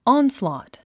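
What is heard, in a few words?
"onslaught", English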